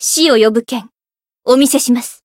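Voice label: Voice variable chant, Japanese; niike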